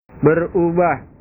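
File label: "berubah", Indonesian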